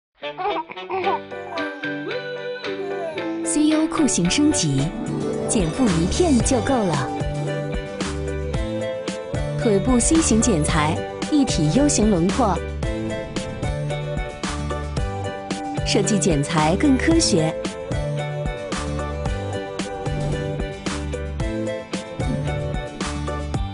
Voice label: 女 纸尿裤TVC; 雀氏

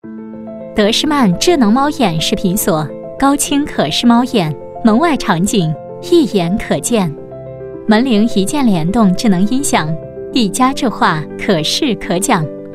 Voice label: 女 广告; 德施曼智能猫眼视频锁

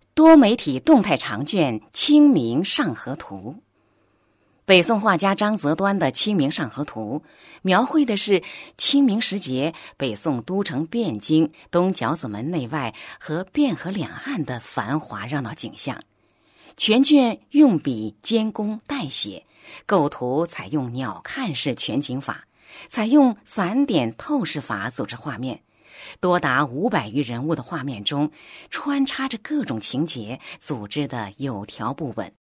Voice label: 多媒体, 国语女声配音; 成熟专题; 清明上河图

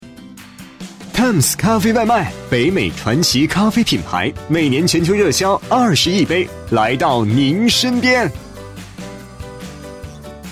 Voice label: 男 广告 轻松

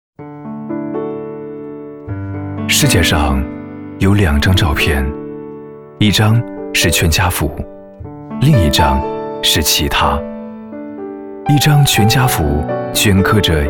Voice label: 男, 电台, 温暖, 沉稳; 青岛电台--最美全家福

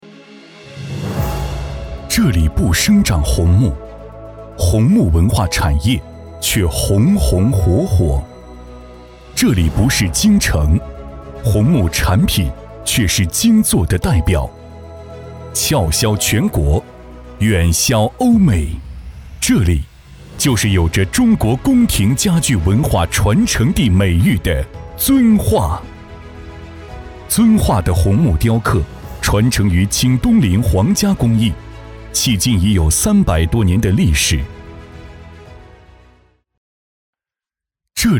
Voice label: 男 宣传片 红木; 遵化

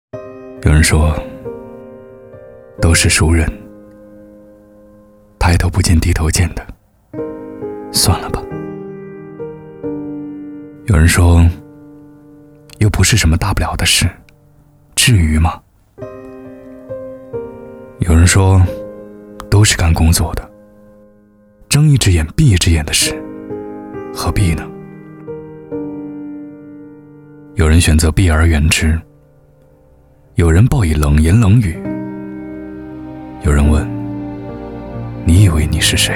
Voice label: 男 走心 试音

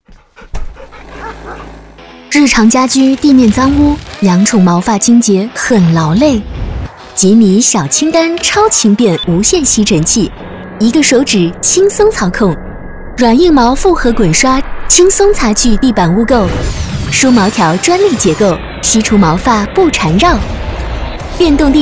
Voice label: 女 广告 吸尘器 轻松 愉悦; 吉米小轻杆吸尘器

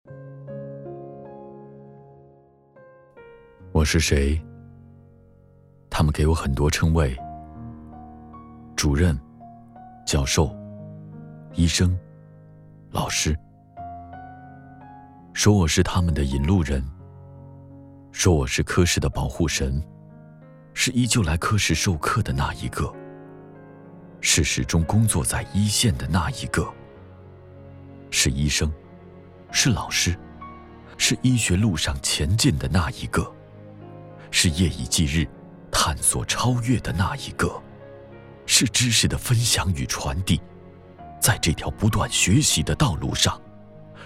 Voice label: 男 党建宣传; 我是谁风格

